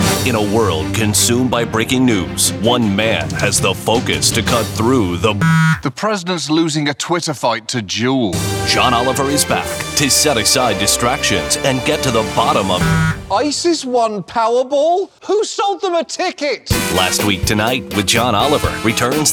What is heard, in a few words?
TV promo